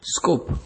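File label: "scope", Nigerian Pidgin, male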